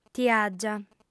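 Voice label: "tiàgia", female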